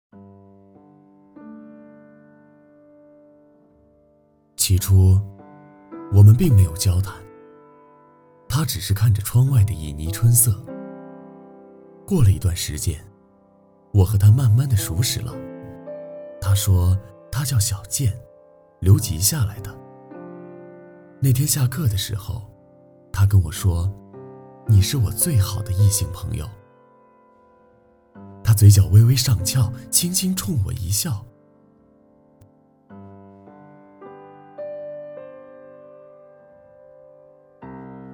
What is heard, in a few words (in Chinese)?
朗诵男声